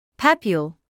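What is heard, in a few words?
"papule" English, British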